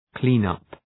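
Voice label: "clean up", English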